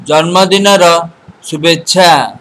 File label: birthday, odia